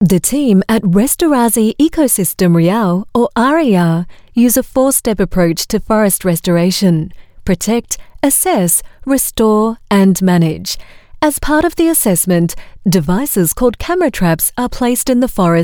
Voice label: AU MidAtlantic English Video, Australian Female; Salween Group

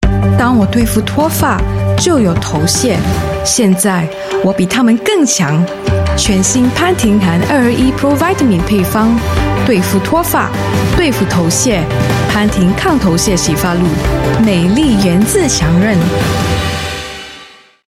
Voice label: Mandarin China, Female; Pantene

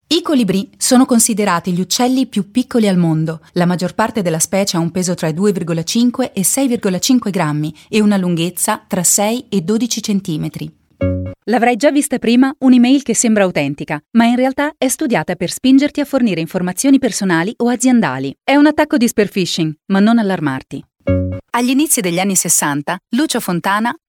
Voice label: Italian Elearning Docu IVR

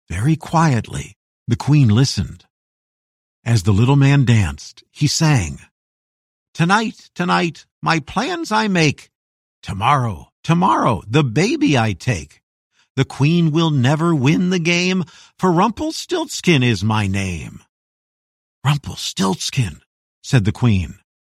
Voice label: US English Audiobook; Rumpelstiltskin

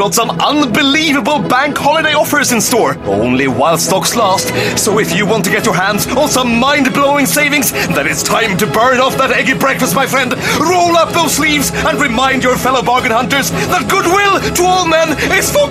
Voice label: Swedish English Easter Radio Ad, Male; IKEA

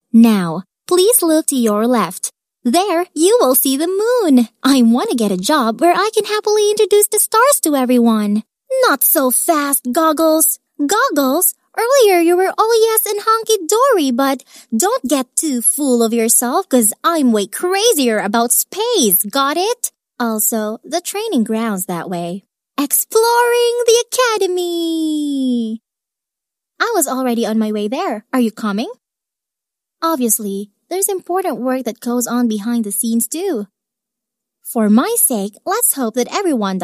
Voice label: Filipino English Character Voices

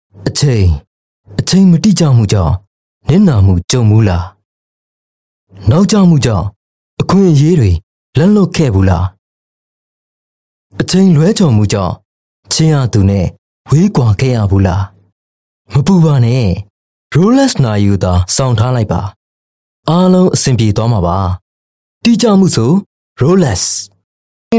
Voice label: m Burmese TVC

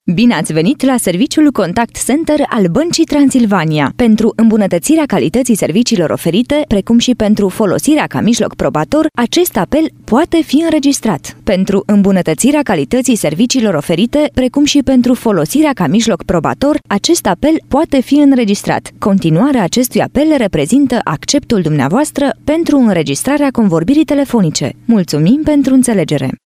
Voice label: Romanian, Female

